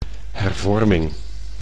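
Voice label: "hervorming", Dutch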